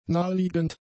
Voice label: "naheliegend", German; adjectives